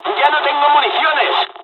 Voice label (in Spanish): dialogs spanish; usa; War Thunder